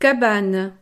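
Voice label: "cabane", French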